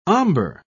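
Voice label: "ombre", English, British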